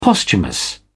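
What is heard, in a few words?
"posthumous", British English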